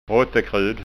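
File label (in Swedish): "rottekrut", Skåne dialect Swedish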